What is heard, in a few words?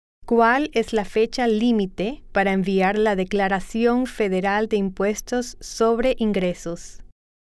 Spanish